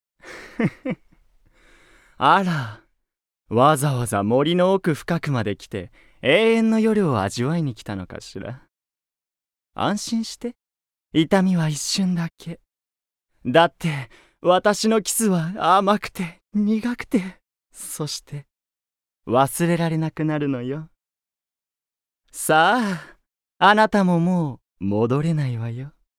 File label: Dialog